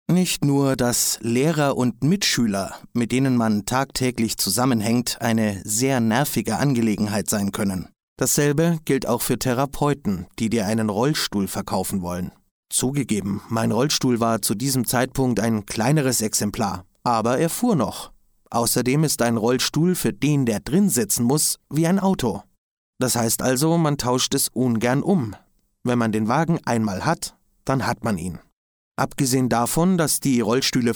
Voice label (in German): hoerbuch ausschnitt